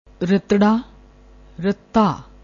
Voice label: "ratra", Punjabi